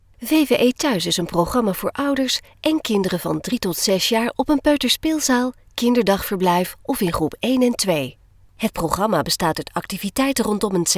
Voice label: female Dutch corporate